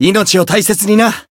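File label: Voice heal, Japanese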